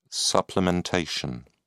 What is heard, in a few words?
"supplementation", en uk